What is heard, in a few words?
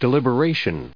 "deliberative", en us